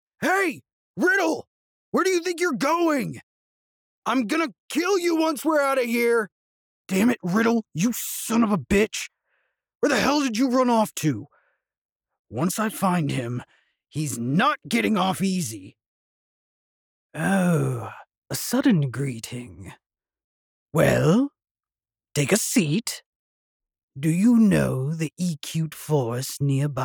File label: Gruff voice sample